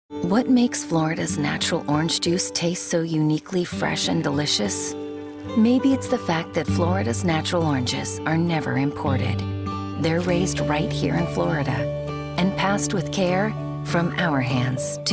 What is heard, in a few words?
commercial